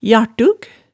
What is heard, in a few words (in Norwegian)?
"jartug", hemsedalsmaalet